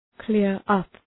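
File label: "clear up", English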